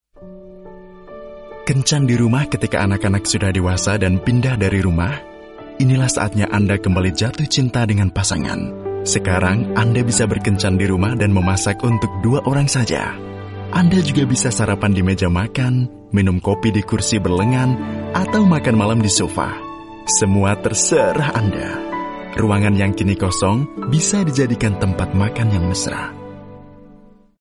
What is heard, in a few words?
Indonesian M Commercial